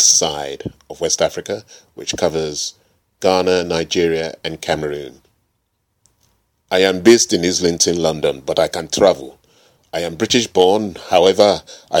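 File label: ENA AccEN, accented English; GoLocalise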